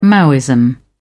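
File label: "maoism", English, British English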